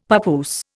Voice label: "papoose", Indian; Dictionary